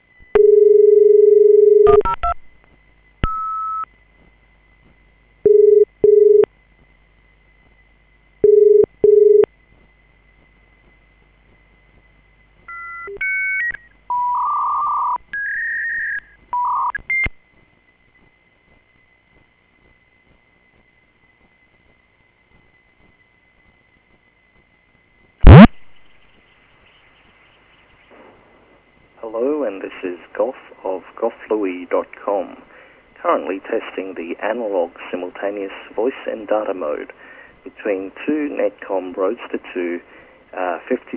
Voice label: 4800bps asvd audio